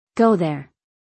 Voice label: Go there - english; Simple Commands